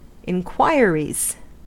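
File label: "inquiries", US English; pronunciations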